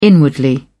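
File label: "inwardly", British English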